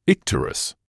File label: "icterus", English